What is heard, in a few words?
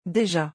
"déjà", French